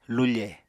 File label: "lufe", apatani